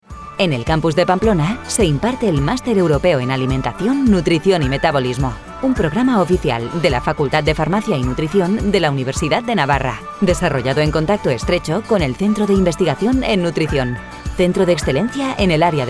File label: Spanish VO Corporate Explainer; LOCUTORA DE PUBLI, VOICEOVER